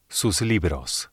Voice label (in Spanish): sus libros, espanol